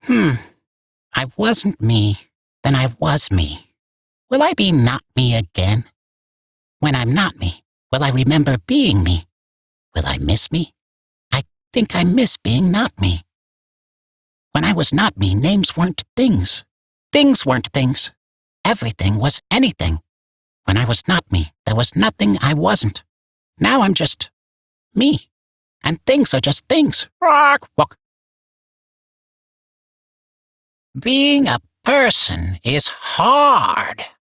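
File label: Barks Bird, English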